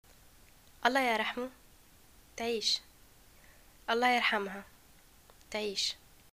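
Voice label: greeting death, Tunisian Arabic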